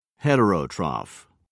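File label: "heterotroph", English, British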